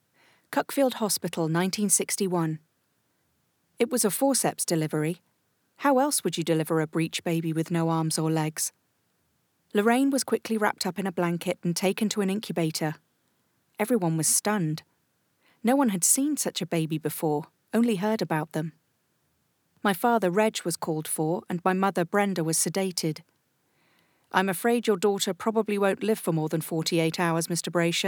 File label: audiobook; extract from Beaten But Unbowed